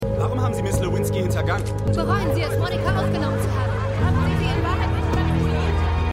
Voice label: Reporter Reporterin; AmericanCrimeStory

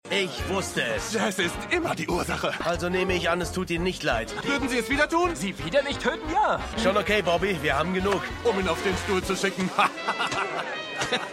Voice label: Reporter; Schmigadoon

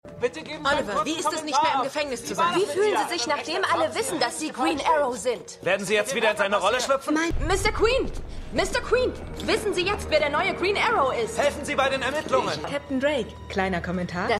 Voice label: Reporter M1 F1 F2 M2; Stimmproben; Arrow

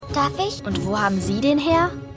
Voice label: Schuelerin; Phantastische Tierwesen

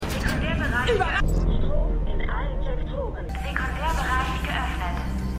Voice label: Computerstimme; The 100

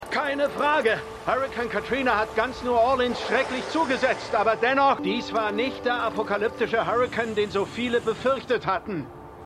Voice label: Reporter; MEMORIAL HOSPITAL - DIE TAGE NACH HURRIKAN KATRINA (DF 2022); Five Days at Memorial

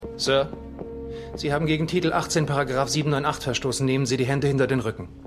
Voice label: Senior; Madam Secretary

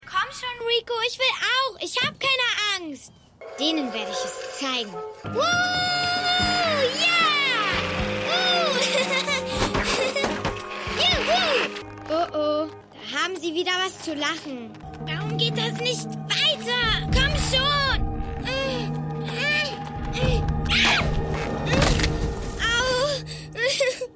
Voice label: Kind; Eureka

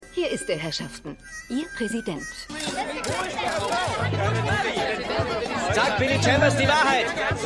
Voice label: Morgenmagazinmoderatorin; Georgia Reporter

